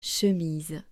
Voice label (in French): "chemise" French